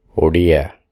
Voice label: Pronunciation of the Odia language word Odia